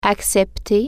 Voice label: "accept", French

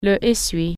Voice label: "axle", French

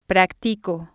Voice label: "practico", spanish

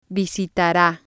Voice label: "visitara", Spanish

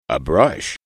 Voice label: "a brush", English

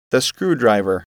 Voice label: "screwdriver", English

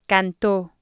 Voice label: "canto", Spanish

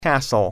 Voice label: "castle", english

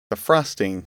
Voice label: "frosting", English

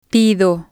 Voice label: "pido", spanish

